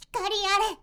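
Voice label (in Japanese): magi girl "hikariare", Japanese; magi syozyo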